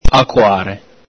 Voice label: "acoare", Veneto